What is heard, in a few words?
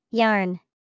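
"Yarn", English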